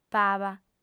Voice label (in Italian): "paba", lingua sarda, fem